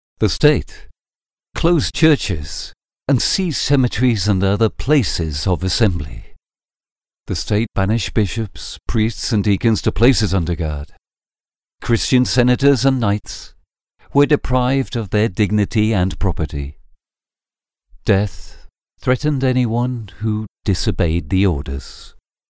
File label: locutor ingles english voiceover, locutor inglés británico